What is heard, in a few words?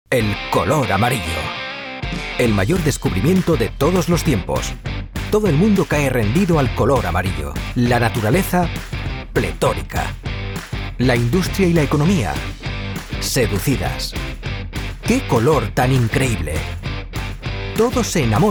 audio reel, spanish voice over